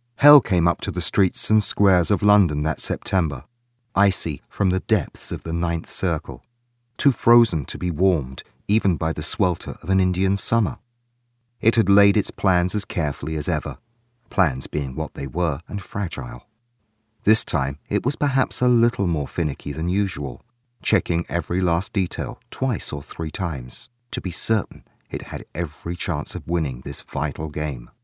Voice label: audiobook